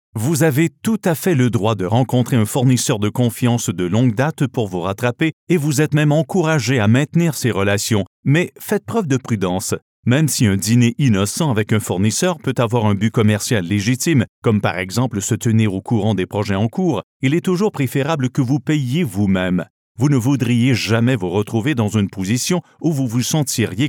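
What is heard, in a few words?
Canadian French eLearning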